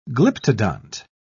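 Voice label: "glyptodont", English, British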